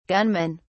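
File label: "gunmen", British English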